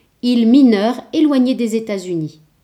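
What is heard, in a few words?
"us minor", French; gcompris-data; geography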